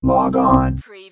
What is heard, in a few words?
Robot voice - log on; lynne publishing